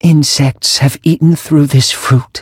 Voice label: defeat, en; cookie run